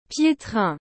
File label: pietrain pronunciation